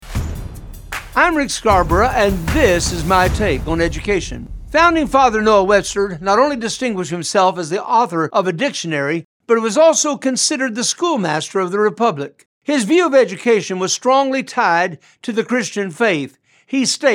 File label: Radio Spot; Education